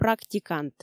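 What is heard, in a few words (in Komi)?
"практикант", Komi, female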